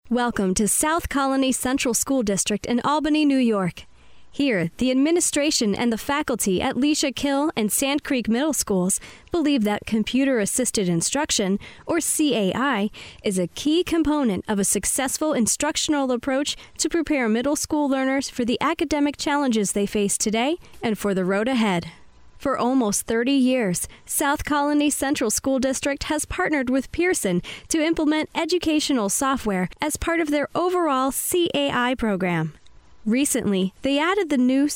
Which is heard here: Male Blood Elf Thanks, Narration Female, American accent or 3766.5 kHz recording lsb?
Narration Female